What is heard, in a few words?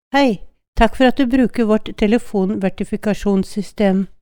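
Norwegian; קריינות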